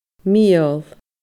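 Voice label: "miol mial", Scottish Gaelic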